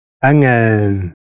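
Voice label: "angeln", German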